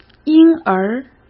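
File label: "婴儿", Mandarin Chinese; hsk; chinese-flashcards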